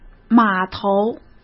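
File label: "码头", Mandarin Chinese; chinese flashcards; hsk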